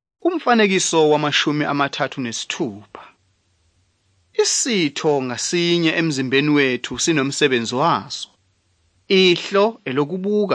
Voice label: zulu bible; good news